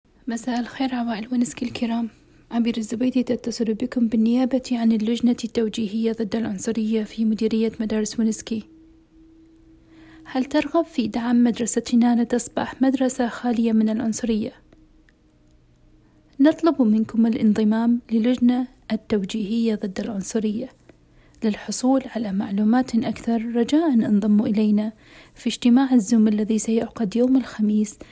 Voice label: Arabic; Join Antiracism Committee